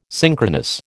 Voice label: "synchronous", American